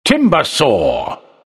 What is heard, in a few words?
announcer; Trine; Timbersaw